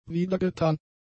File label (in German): "wiedergetan", German; adjectives